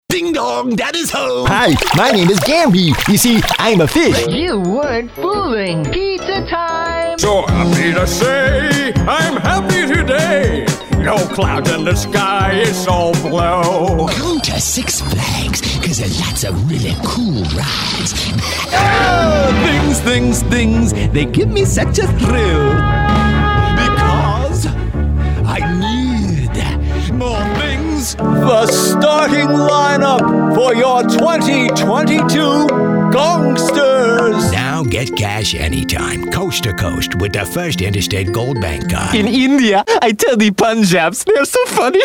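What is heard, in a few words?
character voice over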